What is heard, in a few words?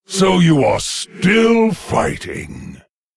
Male Lich Start